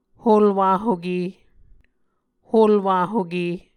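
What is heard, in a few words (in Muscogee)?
"holwahoke", Muscogee word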